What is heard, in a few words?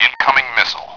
"incoming", Spraak